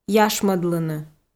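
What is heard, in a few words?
"яшмӧдлыны", Komi-Zyrian, female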